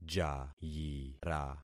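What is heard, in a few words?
"jayira", concatenated syllables; Affixing experiment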